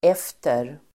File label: "efter", Swedish